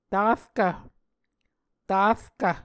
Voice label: "tarkv", Muscogee

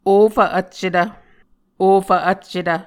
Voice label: "ofv vccetv", Muscogee; Onvkv Ohhvyayices; A Dictionary of Creek/Muskogee; SIL International

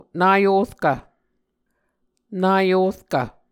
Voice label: "naorkv", Muscogee

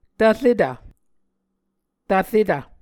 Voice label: "tvretv", muscogee

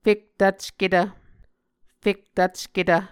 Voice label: "fektvcketv", muscogee

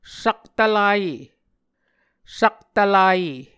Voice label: "saktvlaye", Muscogee

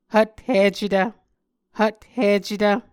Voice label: "hvthicetv", Muscogee

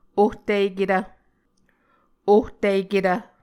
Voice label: "ohtiketv", Muscogee

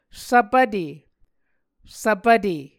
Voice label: "svpvte", Muscogee

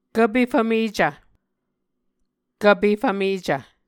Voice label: "kvpe fvmecv", Muscogee

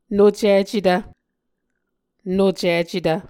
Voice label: "nocicetv", Muscogee

